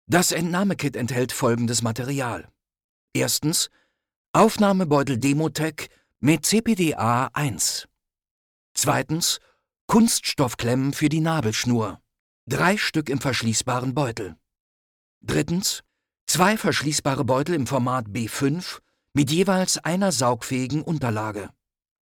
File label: ger explainer medical